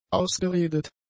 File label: "ausgeredet", German; adjectives